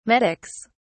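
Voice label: "medics", English, British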